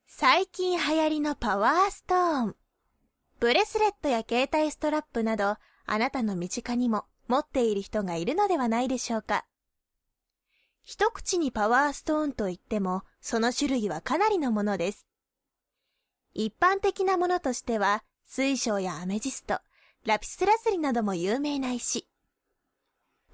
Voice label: narration; ナレーション; 穏やか